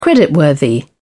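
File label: "creditworthy", British English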